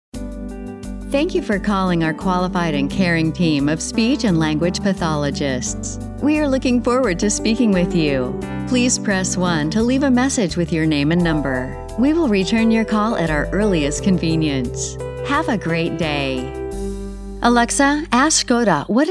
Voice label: us female; lektoring

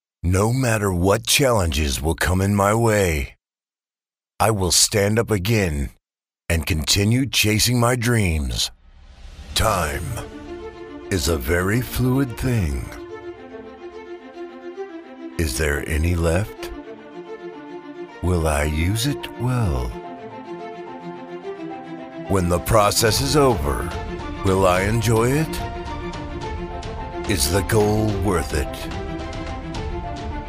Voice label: male US; lektoring

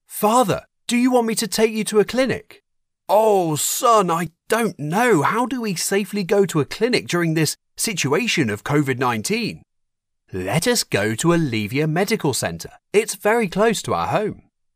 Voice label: eng male; lektoring

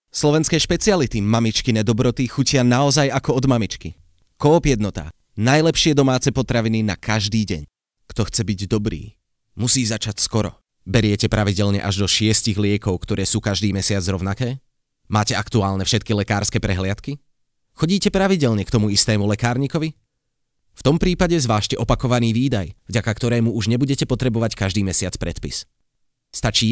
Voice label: slovakia male; lektoring

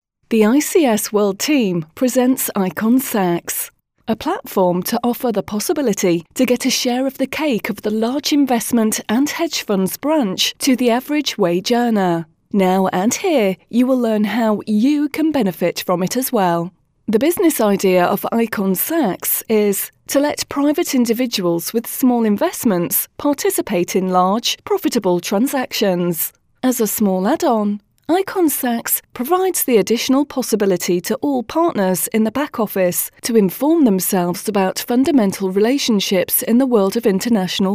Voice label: female

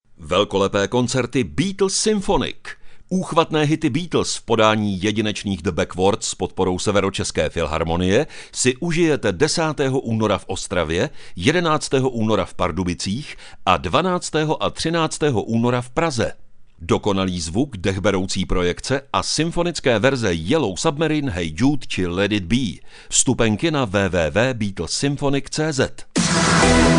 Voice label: Czech male; lektoring

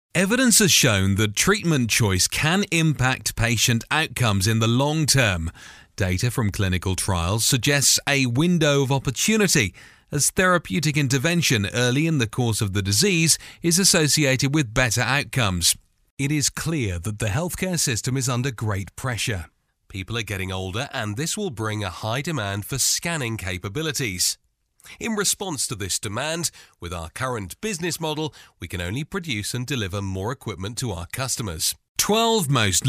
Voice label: eng male

